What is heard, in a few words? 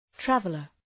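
"traveler", English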